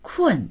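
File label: "kun4", Chinese; 困; 眠い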